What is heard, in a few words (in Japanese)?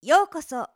ようこそ, ナレーション